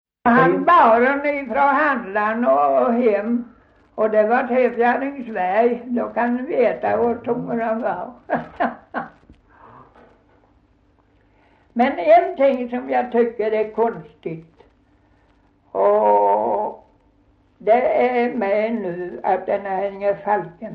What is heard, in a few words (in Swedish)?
Gunnarp, dialekter; Folkminnen